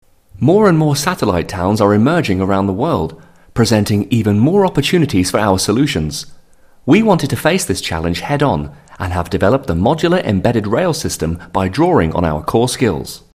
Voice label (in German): GB Doku